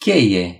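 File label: "wrench", Romanian; gcompris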